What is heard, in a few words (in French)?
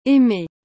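"aimer", French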